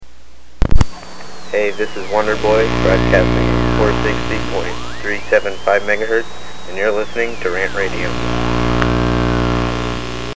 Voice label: Rant Promo; RantRadio; RantMedia; Station Promos-Listener Submitted